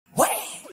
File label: what sound effect adlib made with voicemod